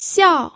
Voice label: "xiao4", pinyin